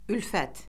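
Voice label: "ülfət", Azerbaijani; Orfoqrafiya Lüğəti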